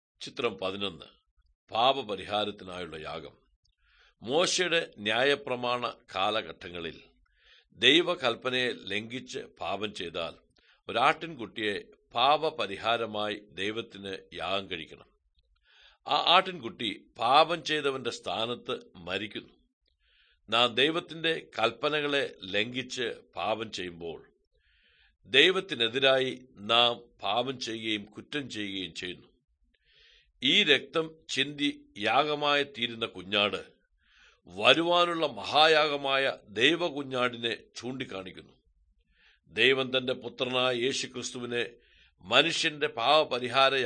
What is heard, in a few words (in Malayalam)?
good news malayalam, drama